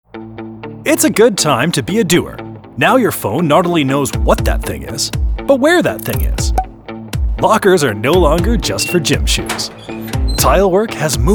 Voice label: Commercial Voice Demo